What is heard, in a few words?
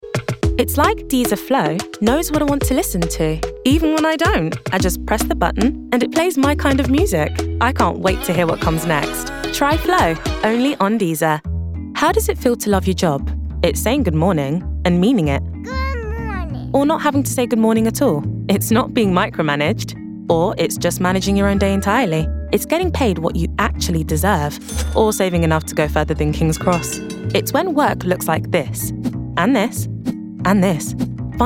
Commercial English